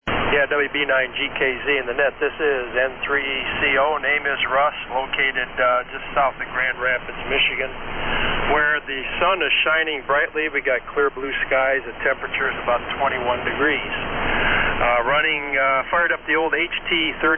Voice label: Halli HT-32 SX-101A & D-104 Mic; Byron Center, MI.